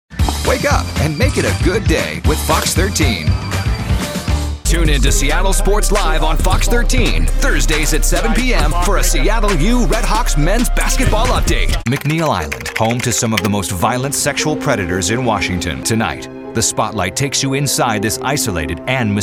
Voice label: english american others